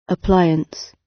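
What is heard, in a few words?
"appliance", British English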